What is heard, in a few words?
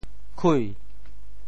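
"khui2", 晷, Teochew; 晷〈名〉; 潮州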